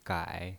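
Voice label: "gai" Mandarin Chinese, third tone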